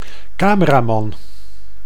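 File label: "cameraman", Dutch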